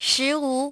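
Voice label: "ten five", English; iOS 4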